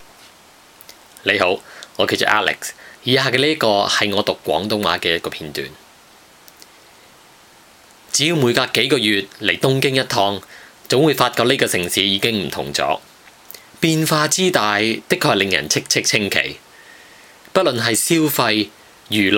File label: radio dj